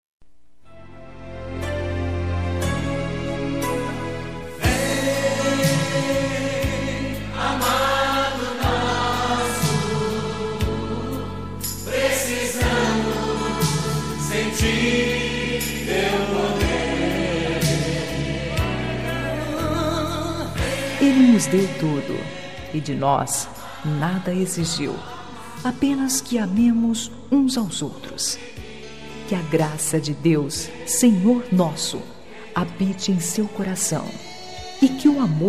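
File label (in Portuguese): Evangélica Mensagem Social, female, telemensagens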